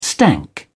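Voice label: "stank", British English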